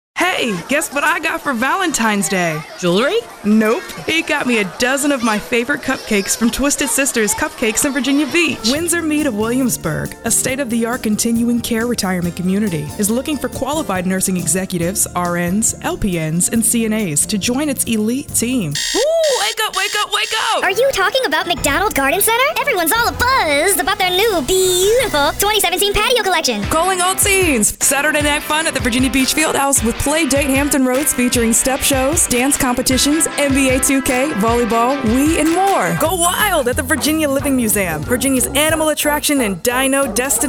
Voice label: african american commercial; Textured, youthful, and energetic. Conversational with a smile.

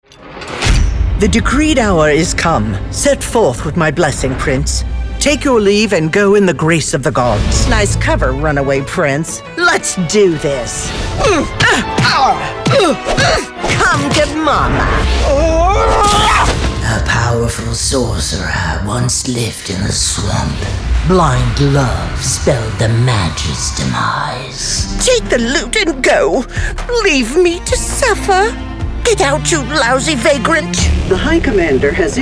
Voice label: women video games; Rich, Warm, Smooth, Authoritative, Upbeat, Natural.

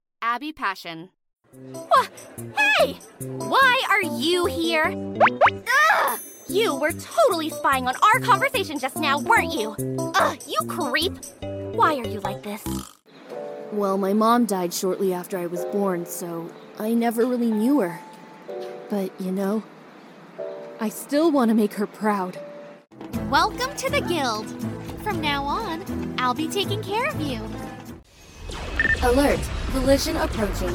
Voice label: women animation; Bright, youthful, encouraging, and energetic feminine voice perfect for character work, narration, or commercial!

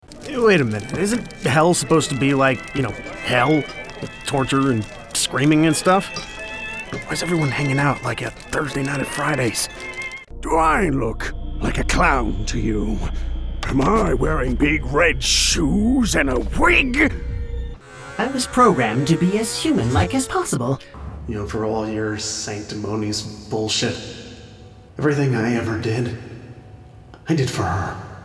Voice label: men video games; Young Adult vocal range of 14-34. Bright, energetic, captivating, conversational, & real.

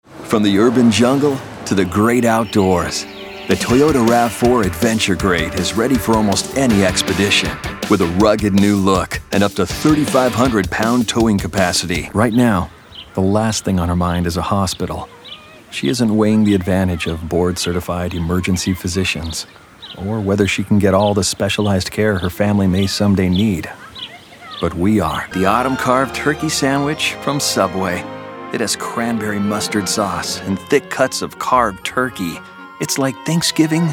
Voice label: men commercial; Fun and youthful, great character range and attitude.

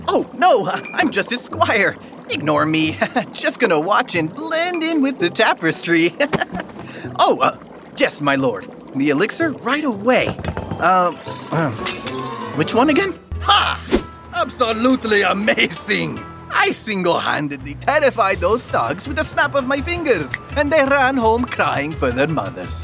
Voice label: men video games; youthful voice with a lot of variety—from cool, conversational to corporate and excitable

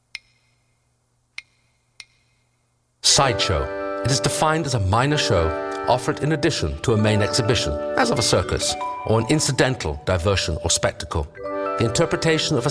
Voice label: british commercial; BRITISH » Delightful British talent with class. Fantastic narrator. Multiple dialects.

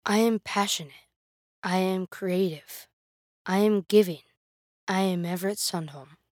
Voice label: kids mini demo

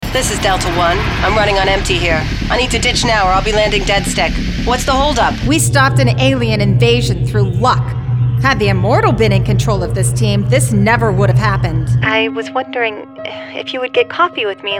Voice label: women video games

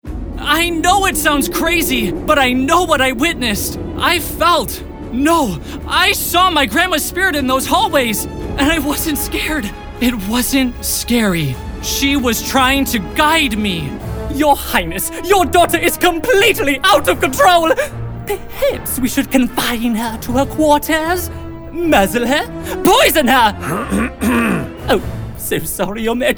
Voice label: men animation; Youthful, charismatic, relatable, & warm, with a flair for character voices.

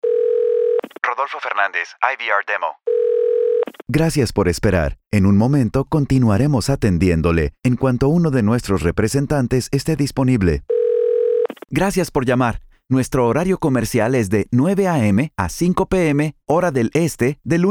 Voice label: spanish telephone

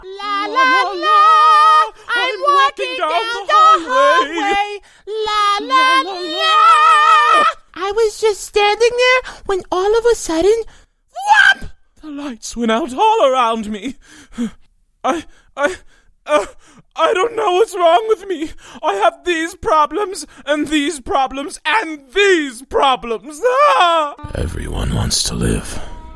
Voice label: men animation; Warm, grounded, conversation

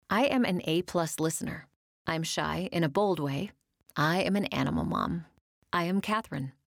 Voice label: women mini demo

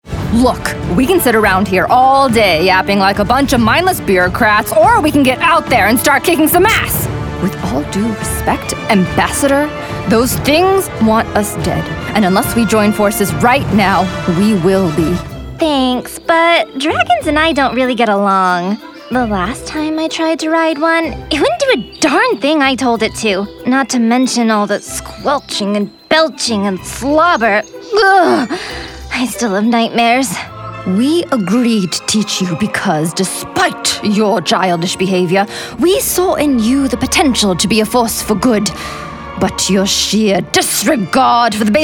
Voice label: women video games; Youthful, vibrant, and dynamic.

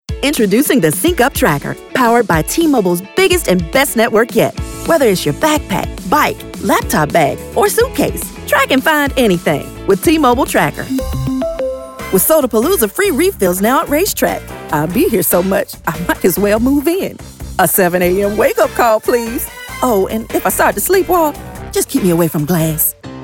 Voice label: women commercial

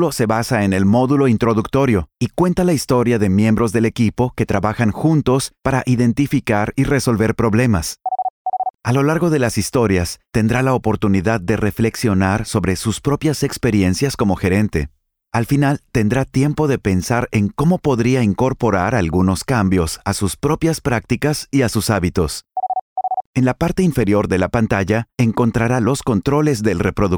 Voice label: spanish corporate

